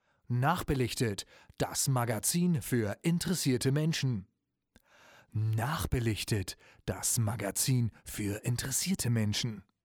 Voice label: wet speech; tbone sct 800